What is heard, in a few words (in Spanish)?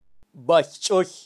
"baychoy", kaqchikel, pronunciacion de animales